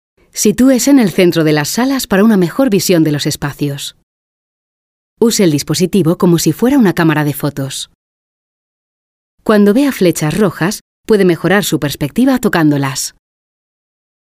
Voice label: museum audioguide